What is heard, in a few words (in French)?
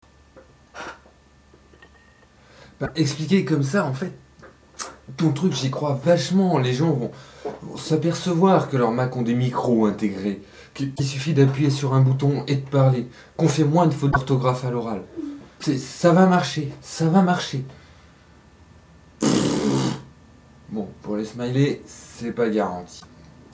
optimiste